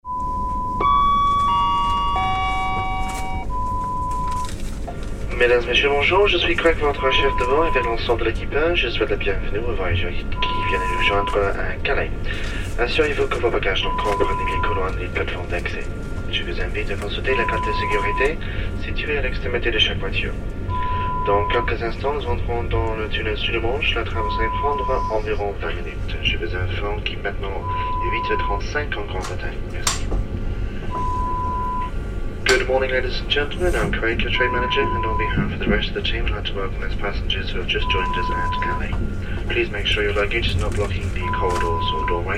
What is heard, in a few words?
Eurostar Driver Announcement sound